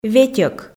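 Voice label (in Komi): "ветьӧк", Komi, female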